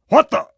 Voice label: surprise, metropolice; hl2

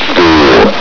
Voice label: "four", Combine soldier; hl2

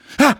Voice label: pain, citizen; hl2

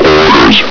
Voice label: "orders", Combine soldier; hl2

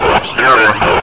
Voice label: lost long, combine soldier, near; hl2

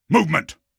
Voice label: "movement", combine soldier; hl2

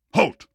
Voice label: "halt", combine soldier; hl2

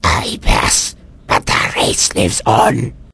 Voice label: wound, vort speech; hl2

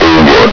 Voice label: "over", Combine soldier; hl2

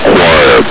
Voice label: "quiet", combine soldier; hl2

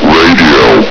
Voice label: "radio", combine soldier; hl2

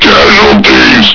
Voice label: "casualties!", combine soldier; hl2

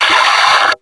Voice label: "fire!", combine soldier; hl2

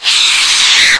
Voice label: announce, stalker; hl2

